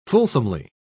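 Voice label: "fulsomely", British English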